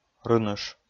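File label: "рыныш", Komi, male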